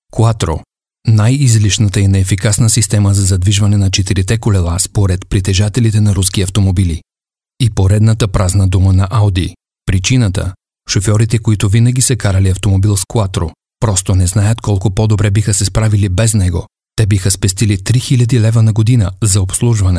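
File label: Bulgarian, male, e-learning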